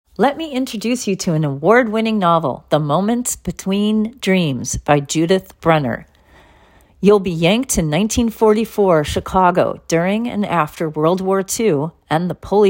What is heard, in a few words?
Podcast commercial; Moments Between